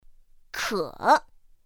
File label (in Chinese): "kě", pinyin; pinyin2; pinyinji